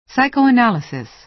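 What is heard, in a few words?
"psychoanalysis", American English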